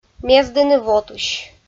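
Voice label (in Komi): "мездыны вотысь", Komi, female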